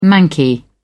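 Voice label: "manky", British English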